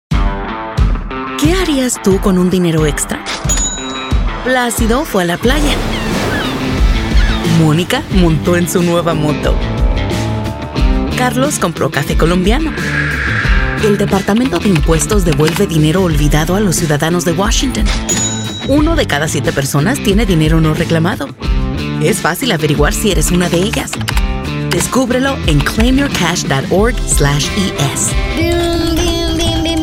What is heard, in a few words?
Spanish Commercial; Spanish Commercial Demo